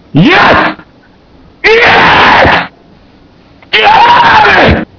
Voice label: mumble; svencoop; cracklife; gman